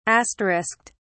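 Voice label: "asterisked", British English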